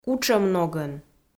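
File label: "кутшӧм ногӧн", Komi, female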